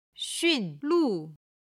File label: "xun4lu4", chinese